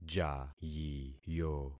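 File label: "jayiyo", concatenated syllables; Affixing experiment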